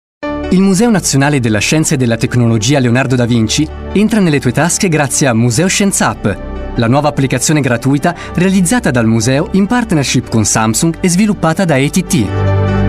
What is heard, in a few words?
italian voice over male narrator